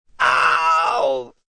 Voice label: Man Ow, A man yells; Pain